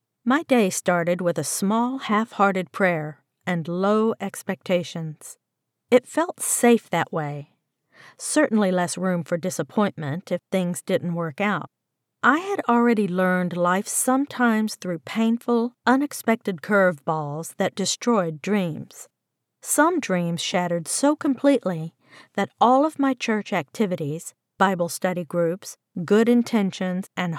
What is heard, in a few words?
Non Fiction Religion Female